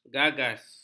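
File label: "gagas", Malay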